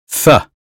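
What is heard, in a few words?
American phonetics sound think; 英语音标发音（剑桥版）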